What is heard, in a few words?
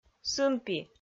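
"сынпи", Komi, female; audio dict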